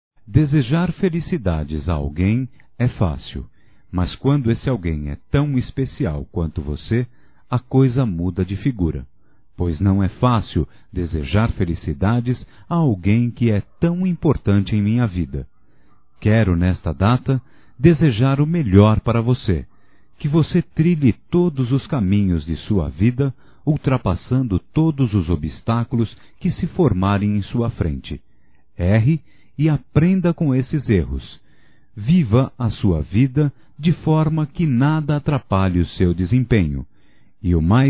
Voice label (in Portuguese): Aniversário Neutro Masculino; Aniversarios; Telemensagens 2017